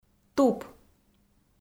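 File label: "туп", Komi, female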